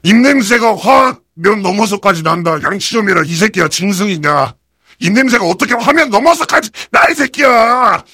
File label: heavy jeers